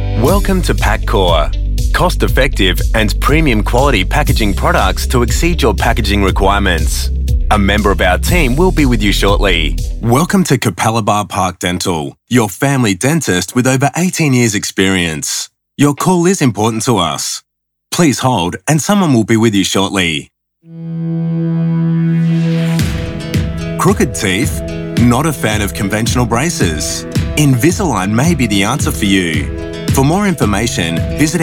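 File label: Australian PHONE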